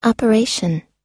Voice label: "operation", us; 運転、作動、運行